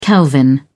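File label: "kelvin", British English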